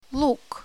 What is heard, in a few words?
onion in russian